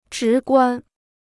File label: "直观", Chinese